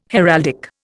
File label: "heraldic", Indian